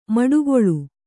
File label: "ಮಡುಗೊಳ್ಳು", Kannada